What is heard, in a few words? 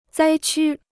"灾区", Chinese